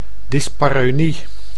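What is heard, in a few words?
"dyspareunie", Dutch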